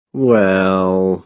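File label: "well", American English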